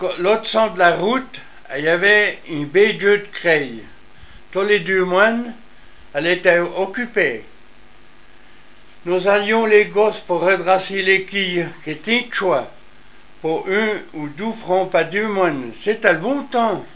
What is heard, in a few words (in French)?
patois